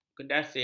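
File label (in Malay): "kedasih", Malay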